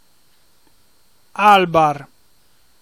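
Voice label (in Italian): "Àlbar" Emilian dialect word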